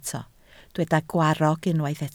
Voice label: "gwarrog", Welsh